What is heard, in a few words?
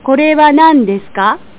kore wa nan, Japanese; japaneselanguageguide